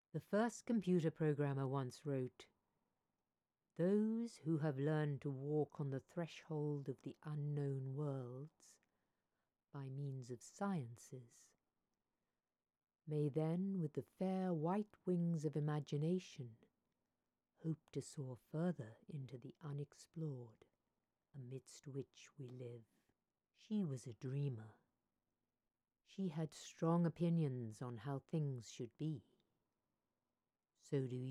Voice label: narrator